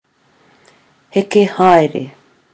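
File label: "heke haere", Maori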